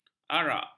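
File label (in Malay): "arak", Malay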